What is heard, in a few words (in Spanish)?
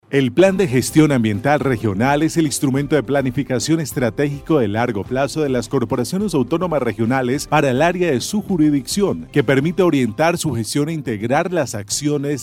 cuña